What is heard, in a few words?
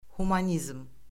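"humanizm", Azerbaijani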